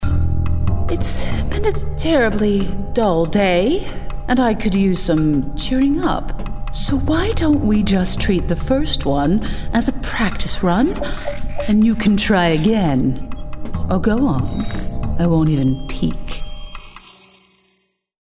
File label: ANIMATION Villain Posh US